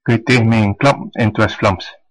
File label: speak to me in, West Flemish